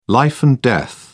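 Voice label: "life and death", British English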